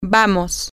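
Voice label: lets go, Spanish, female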